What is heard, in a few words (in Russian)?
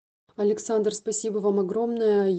день рождение отзыв